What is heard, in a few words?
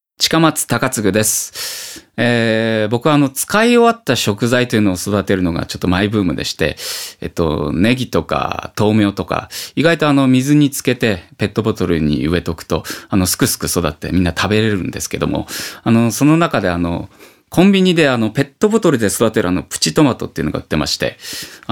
freetalk, male voice